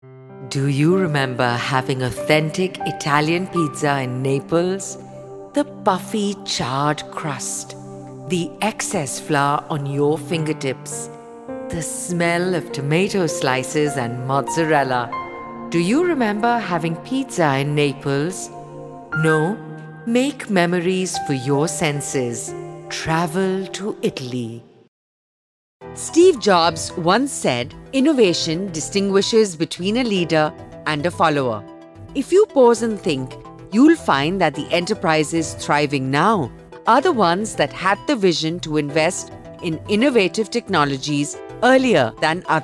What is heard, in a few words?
english master vo reel